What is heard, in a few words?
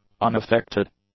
"unaffected", English; adjectives